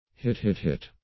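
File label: "hit hit hit", English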